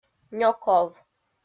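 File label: "нёков", Komi, female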